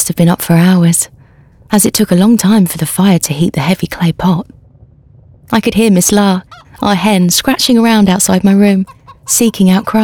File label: Audiobook Extract, Female, Bright, Conversational, Engaging, Reassuring; 30s